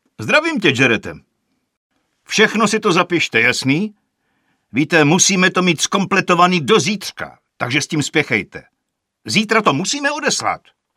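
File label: Male Czech drama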